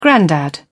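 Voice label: "grandad", English, British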